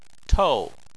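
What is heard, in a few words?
"tou", Chinese, fourth tone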